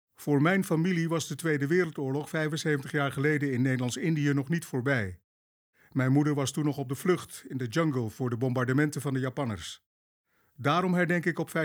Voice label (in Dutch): Radiospot